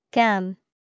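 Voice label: "gum", English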